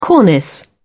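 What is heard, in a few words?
"cornice", British English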